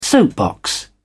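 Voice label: "soapbox", British English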